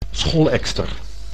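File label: "scholekster", Dutch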